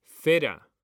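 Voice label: "CERA", Spanish, male; mekanta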